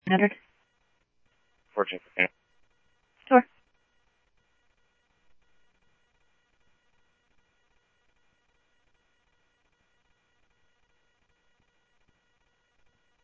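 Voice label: police department, scanner audio trunk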